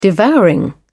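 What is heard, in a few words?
"devouring", British English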